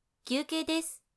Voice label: 进入休息时间, 女生为你进行健身计数的语音 姐姐; 休息时间用

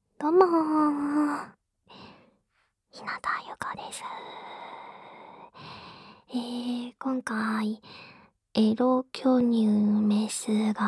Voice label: 自由谈话

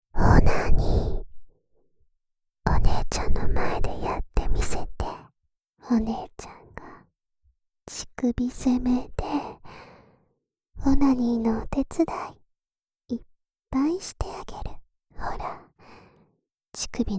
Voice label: 在耳边煽动射精 (左耳); 真由; 姐姐交换！

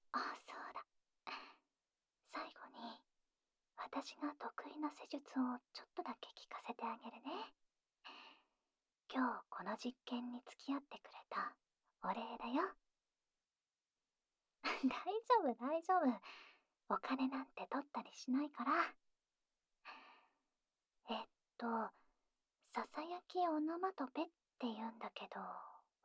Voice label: 悄聲念出各種擬聲詞進行ASMR服務; noEffect

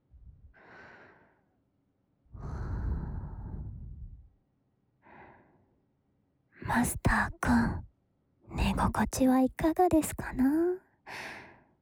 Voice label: 夹杂着轻声细语述说往事，惬意地引入梦乡

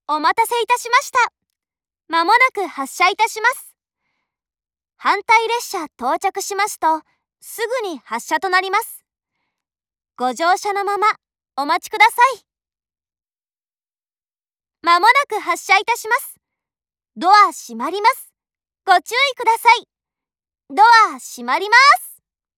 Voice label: 市塙站发车前 广播, 车内广播; 万冈铁路车内广播；茂木方向

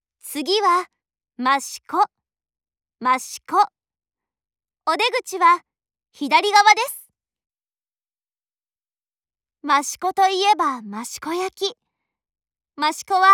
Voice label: 真岡方向 七井站發車 廣播; 萬岡鐵路社内放送

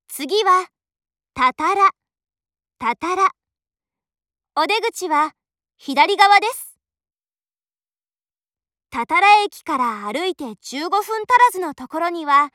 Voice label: 七井站發車 廣播, 萬岡鐵路社内放送; 茂木方向

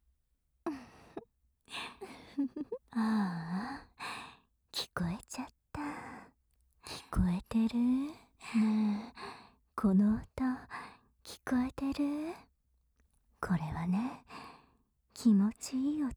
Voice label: 倒數計時催眠 voice only

